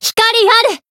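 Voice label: Voice finish, Japanese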